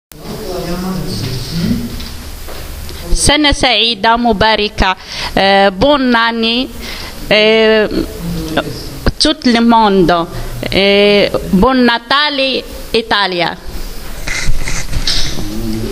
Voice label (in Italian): arabo